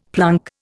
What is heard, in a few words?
"plunk", Indian; Dictionary